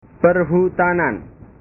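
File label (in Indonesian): "perhutanan", Indonesian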